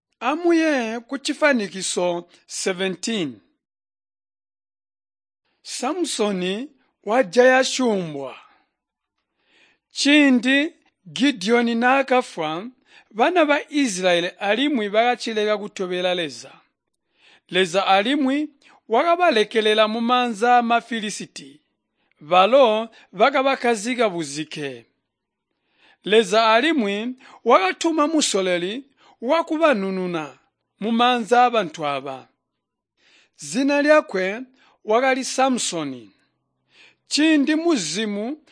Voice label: tonga plateau, drama; chitonga bsz nt drama